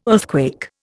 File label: "earthquake", Indian